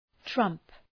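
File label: "trump", English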